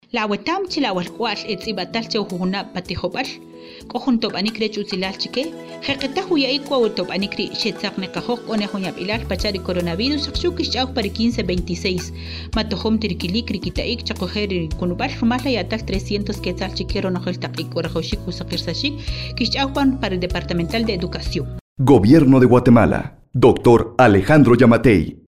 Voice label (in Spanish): Version Kiche